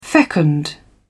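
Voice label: "fecund", British English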